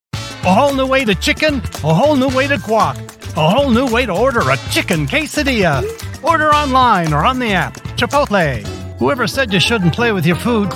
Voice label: Commercial